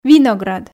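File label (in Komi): "виноград", Komi, female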